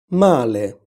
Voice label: male